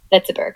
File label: luxembourg luxembourgish